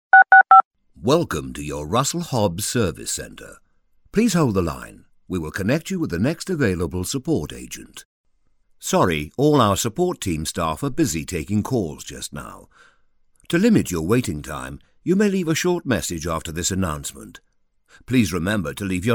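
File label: British, man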